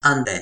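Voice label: "ante", Toki Pona; linku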